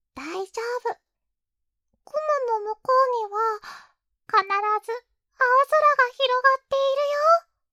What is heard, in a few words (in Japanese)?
励ましボイス